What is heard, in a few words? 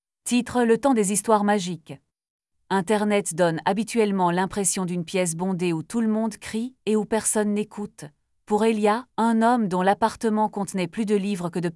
french; Blooper